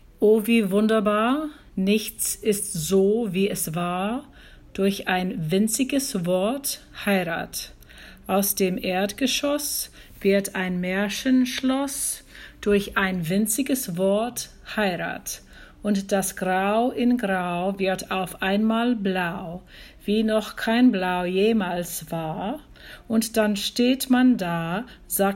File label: married german pronounciation